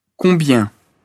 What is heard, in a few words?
"combien", fr